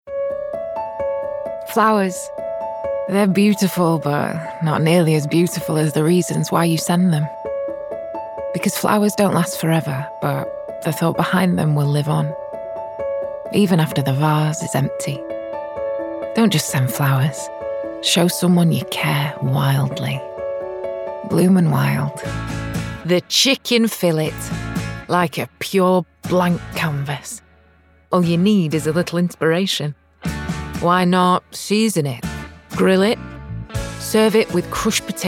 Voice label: commercial reel

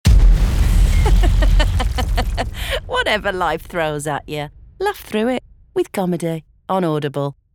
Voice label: Commercial; Audible

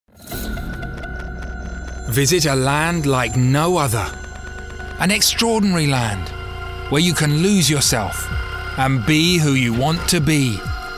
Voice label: COMMERCIAL REEL

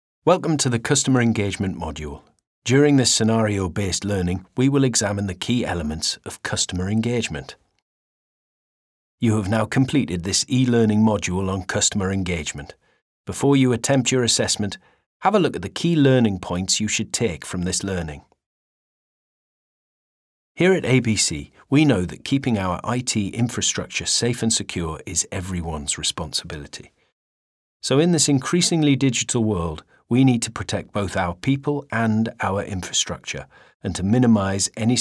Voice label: Corporate

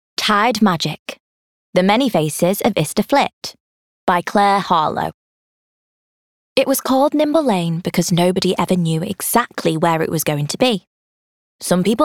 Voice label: audiobook; Tidemagic by Clare Harlow

